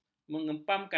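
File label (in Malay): "mengepamkan", Malay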